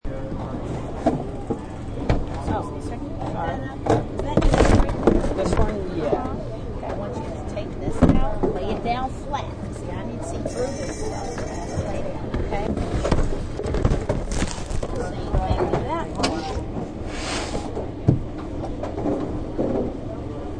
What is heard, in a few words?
Airport Security Voices, The sounds of an airport, including security announcements; over the PA